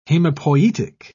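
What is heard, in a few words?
"hemopoietic", British English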